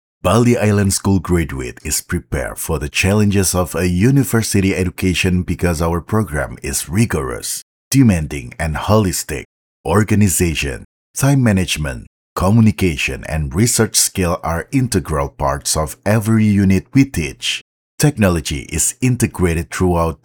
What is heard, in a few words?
FORMAL ENGLISH